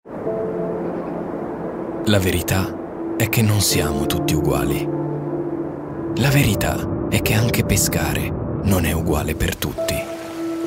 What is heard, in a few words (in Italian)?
tvc tonno mare aperto